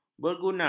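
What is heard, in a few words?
"berguna", Malay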